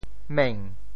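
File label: "meng2", Teochew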